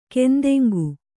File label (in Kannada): "ಕೆಂದೆಂಗು", kannada